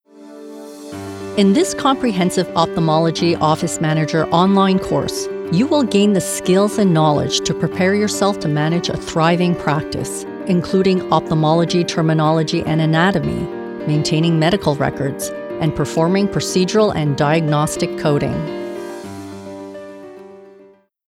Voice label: MEDICAL E LEARNING